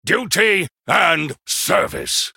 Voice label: male human greetings

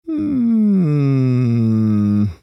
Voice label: male troll thinking

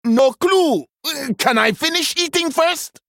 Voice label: male troll start

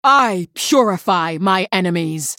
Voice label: female human trigger consecration

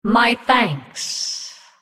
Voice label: female naga thanks

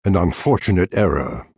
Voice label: male night elf oops

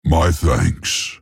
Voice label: male tauren thanks

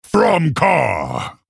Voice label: male orc greetings

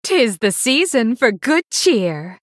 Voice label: female blood elf picked

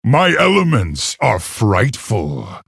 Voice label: male orc start

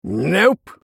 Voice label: male human error generic

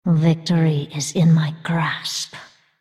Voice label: female undead thinking

